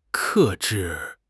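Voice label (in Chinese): "克制", Chinese; HSK6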